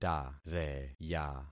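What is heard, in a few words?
"tareya", concatenated syllables; Affixing experiment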